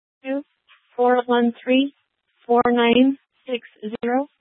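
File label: Tempe Police Department, scanner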